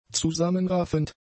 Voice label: "zusammenraffend", German; adjectives